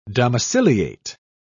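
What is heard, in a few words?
"domiciliate", British English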